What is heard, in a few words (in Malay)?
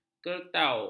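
"kertau", Malay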